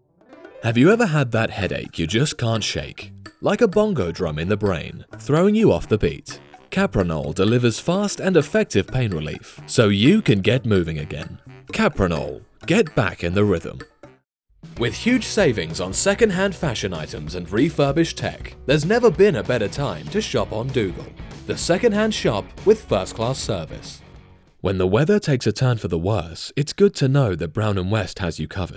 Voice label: Advert